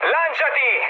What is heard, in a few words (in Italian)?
bailout, mood med, speech it; War Thunder